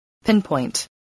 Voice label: "pinpoint", voice, English